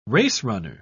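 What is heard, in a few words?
"racerunner", English, uk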